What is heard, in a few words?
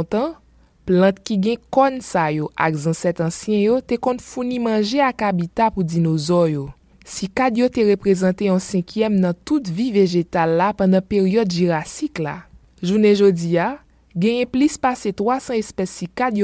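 Haitian Creole, General; GoLocalise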